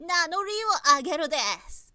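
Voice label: woman, "nanoriwoagerudesu"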